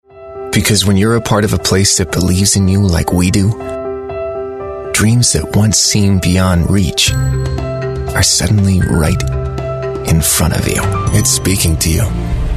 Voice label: english american voice over male